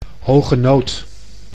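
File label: "hoge nood", Dutch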